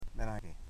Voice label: "thirtyseven", Foe